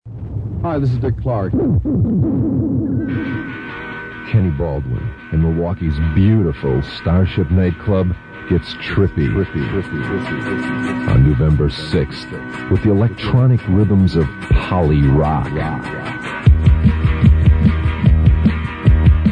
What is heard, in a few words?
Radio Ad